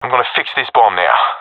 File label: i plant bomb, professional; vo; Counter Strike Model Pack; CS:GO